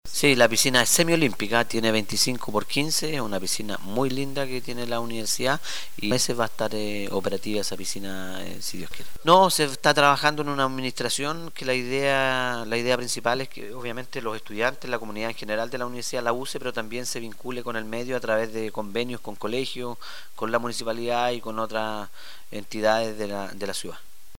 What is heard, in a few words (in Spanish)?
Cuña